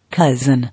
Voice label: "Cousin", English